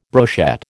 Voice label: "brochette", American